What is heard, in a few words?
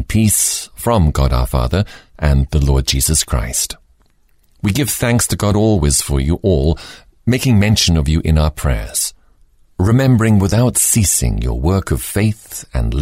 Audiobook The New Testament Thessalonians EN